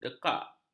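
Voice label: "dekak", Malay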